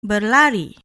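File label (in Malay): "berlari", Malay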